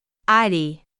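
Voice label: "3alii" male, Arabic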